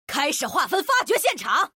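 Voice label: Chinese; 守望先锋2; 终极技能; 主动/敌方释放语音; 简体中文; 开始划分发掘现场！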